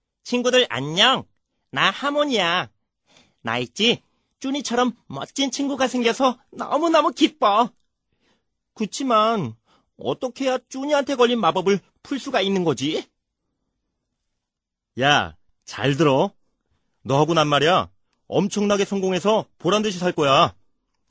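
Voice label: Korean male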